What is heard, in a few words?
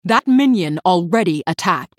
female human error minion attacked